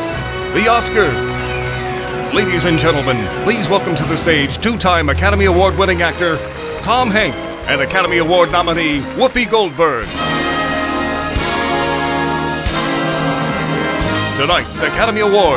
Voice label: Live Announce